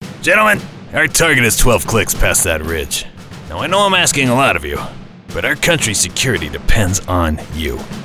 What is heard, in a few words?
Animation Military General